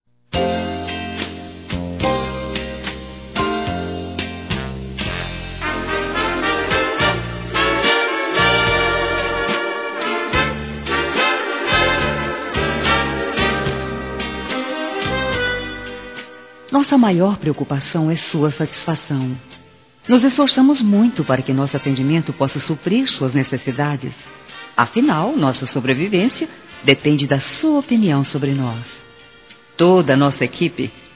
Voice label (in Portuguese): Aniversário Cliente Feminino, Telemensagens; Empresarial; Telemensagens 2017